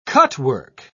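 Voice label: "cutwork", British English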